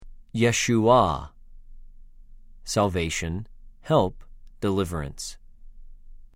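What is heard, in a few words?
"יְשׁוּעָה", Hebrew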